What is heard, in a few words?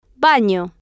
"bano", spanish